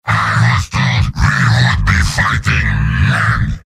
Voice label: heavy taunts; tf2; garrysmod; mvm